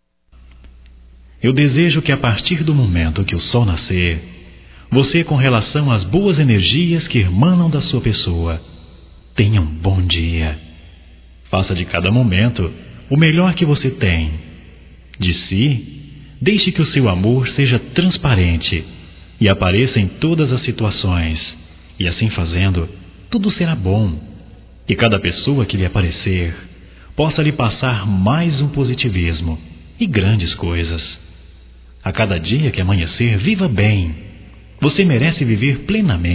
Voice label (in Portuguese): Bom Dia Geral Masculino; Bom dia, tarde e noite; Telemensagens 2017